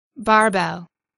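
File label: "barbell", English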